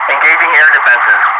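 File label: "engaging air defences", English, Wingman; Messages; DCS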